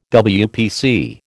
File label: "WPC", American